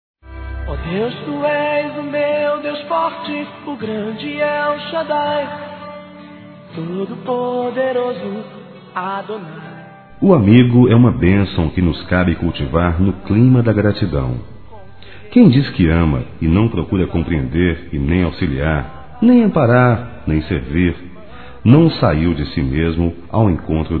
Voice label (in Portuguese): Evangélico Aniversário Amizade Masculino